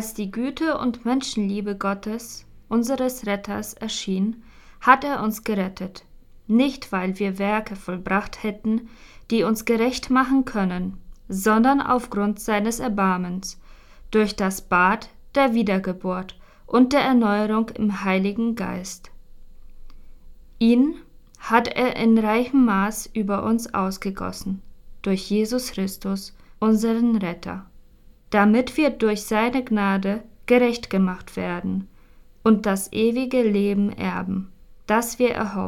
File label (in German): Lesung Weihnachten